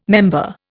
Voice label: "member", Indian